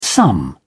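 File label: "some", British English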